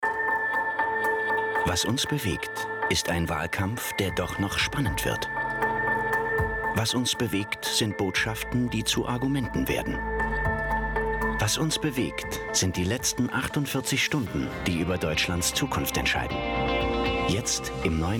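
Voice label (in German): werbespot, deutsch männer; sprecher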